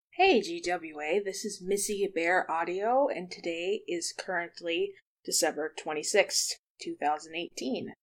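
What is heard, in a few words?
Verification, female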